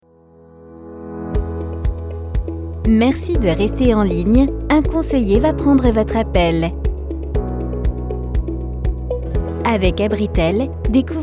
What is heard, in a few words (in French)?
Attente telephonique Voix Off femme; Téléphonie; Message d'attente; Abritel